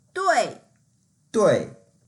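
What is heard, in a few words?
"dui4", Mandarin Chinese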